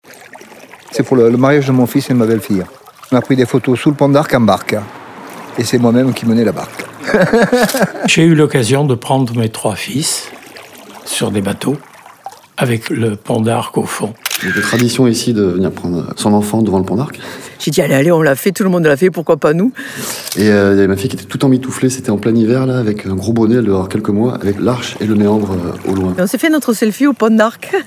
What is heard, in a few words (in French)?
Podcast